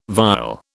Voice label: "vial", British